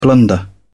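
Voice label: "blunder", British English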